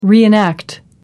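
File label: "reenact", English, uk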